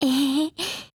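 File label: MOOD Japanese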